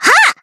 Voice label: battle shout; bluearchive; reisa

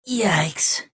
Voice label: negative vocalization; adachi

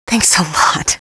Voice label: al quiet thanks

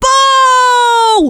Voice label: jeers; femscout

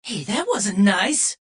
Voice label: negative vocalization; adachi

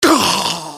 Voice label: engineer pain severe, hurt; hl2dm

